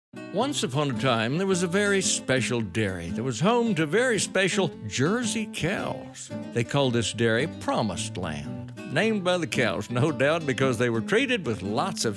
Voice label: Radio Spot